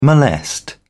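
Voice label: "molest", British English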